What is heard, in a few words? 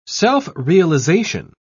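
"self-realization", British English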